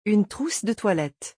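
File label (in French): "une trousse de toilette", French; vocabulaire; le lexique des vacances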